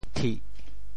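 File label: "thih4", Teochew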